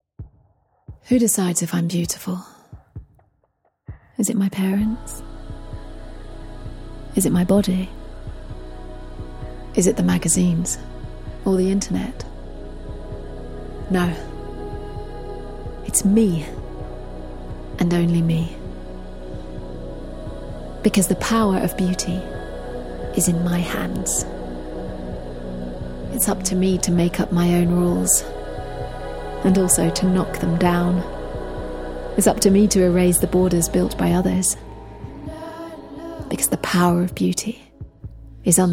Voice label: VO ENG; Sephora